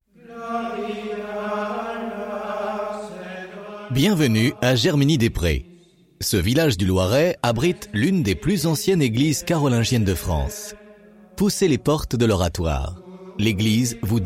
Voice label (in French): audioguide oratoire carolingien de germigny